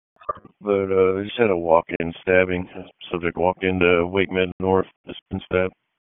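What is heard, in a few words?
EMS Dispatch, p25; wake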